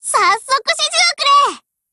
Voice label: HELLO JP; GF Alarm; M60